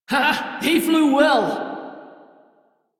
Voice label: Crew Gun Kill Fighter, Female; Dialog; en; Warframe; Railjack Crew; Steel Meridian

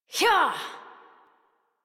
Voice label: Horse Sprint Adult; Warframe; Duviri

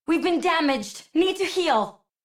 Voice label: Low Health Operator Voice; Operator Mission

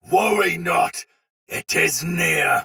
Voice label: Sight Lost Shout Soldier Sword Agent Male, Battle; Sinecure

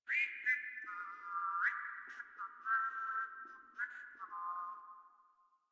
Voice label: Patrol, Grineer Male; Warframe

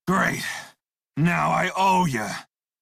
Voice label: Crew Heal Male en; Dialog; Warframe; Railjack Crew; Red Veil

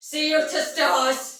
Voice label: See Ally Die Soldier Female, Battle Barks; Dialog; Sinecure

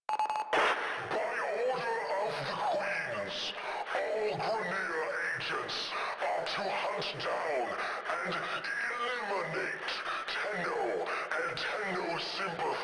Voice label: Announcements, Radio Interceptions

